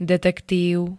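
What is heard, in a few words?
"detektív", Slovak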